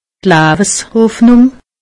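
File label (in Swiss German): "laweshoffnung", Alsatian; medecine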